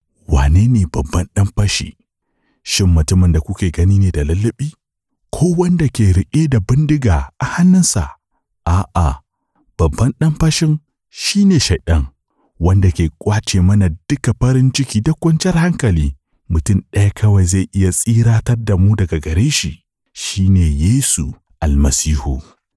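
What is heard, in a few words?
Hausa, male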